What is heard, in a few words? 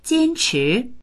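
"jian1chi2", Chinese; jiānchí; 坚持; 堅持する、頑張って続ける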